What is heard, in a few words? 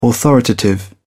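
"authoritative", British English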